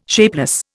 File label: "shapeless", Indian